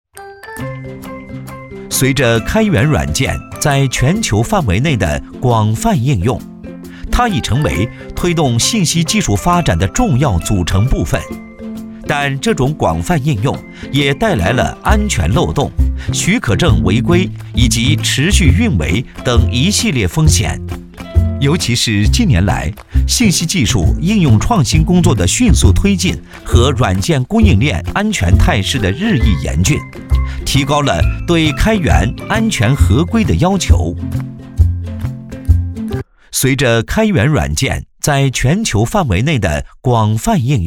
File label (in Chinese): 男 MG 开源治理技术公共服务平台试音; 上海市